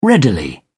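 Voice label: "readily", British English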